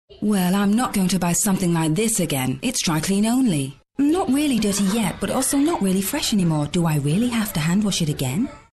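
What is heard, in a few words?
uk englisch, British English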